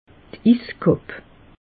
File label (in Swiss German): "iskapp", alsace; meteo